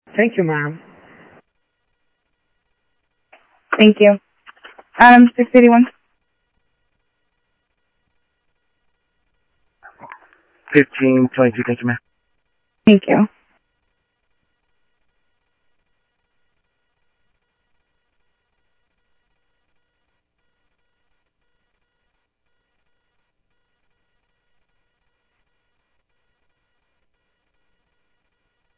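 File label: Sheriff East County, scanner audio trunk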